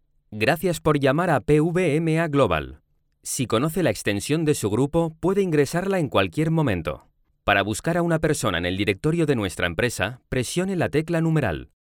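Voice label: IVR, voice over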